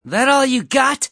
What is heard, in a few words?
pain; Adachi